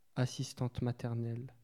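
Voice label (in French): "assistante maternelle" French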